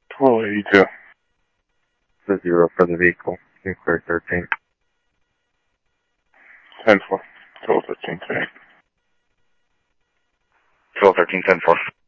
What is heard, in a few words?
PD Police, scanner audio